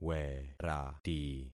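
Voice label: "werati", concatenated syllables; Affixing experiment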